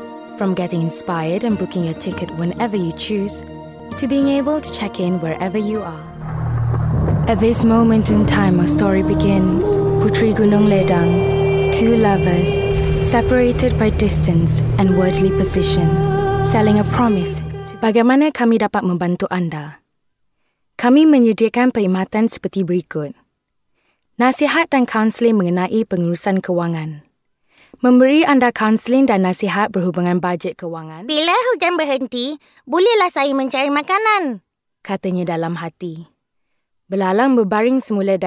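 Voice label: Bahasa Malay F, female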